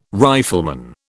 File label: "rifleman", British